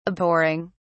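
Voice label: "abhorring", British English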